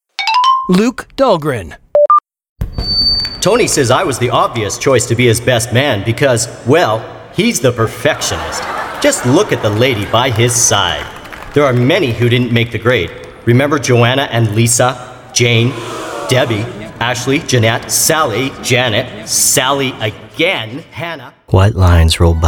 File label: Commercial